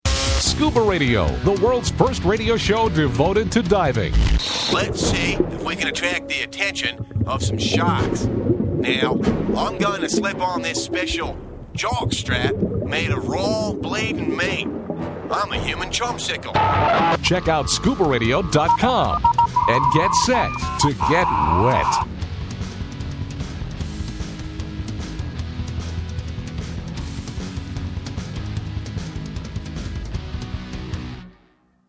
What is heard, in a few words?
Promo